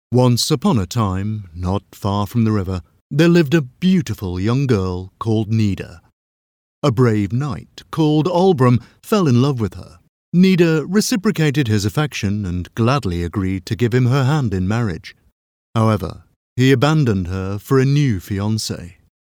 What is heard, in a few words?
audioguide fairy tale; voiceover; audioguides